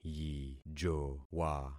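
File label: "yijowa", concatenated syllables; Affixing experiment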